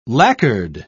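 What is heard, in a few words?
"lacquered", British English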